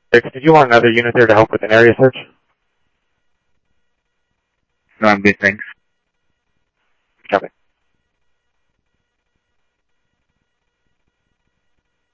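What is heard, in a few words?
Lakes Sheriff Lake Patrol, scanner audio trunk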